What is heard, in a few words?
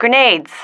"grenades", female